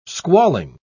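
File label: "squalling", English, British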